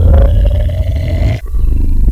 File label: hunter angry; ministrider; npc